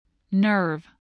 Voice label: "nerve", voice